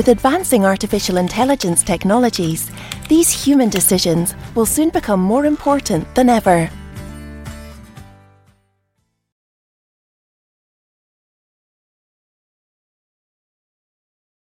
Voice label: e-learning sample integrity